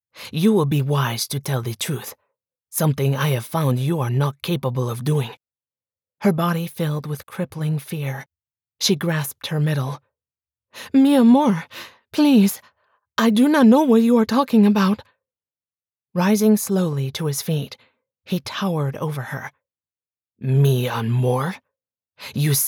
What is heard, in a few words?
ACCENT SPANISH dialogue dramatic